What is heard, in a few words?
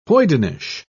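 "hoydenish", British English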